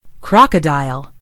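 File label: "crocodile", British English; gcompris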